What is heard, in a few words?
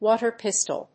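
"water pistol", English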